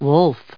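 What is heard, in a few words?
"wolf", US English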